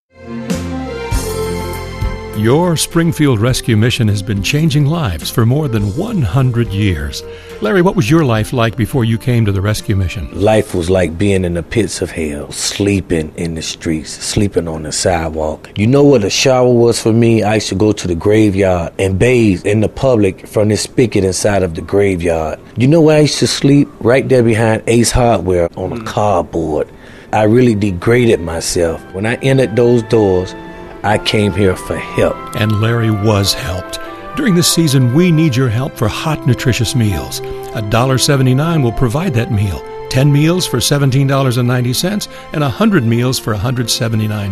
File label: thanksgiving spot